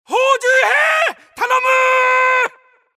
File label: jpn cover